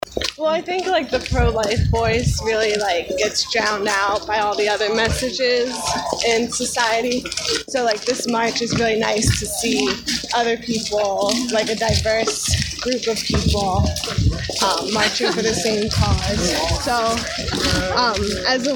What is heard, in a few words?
Vox Pops; March for Life